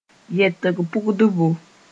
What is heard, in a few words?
"yɛtg pʋdbo", Mooré; infinitive (form)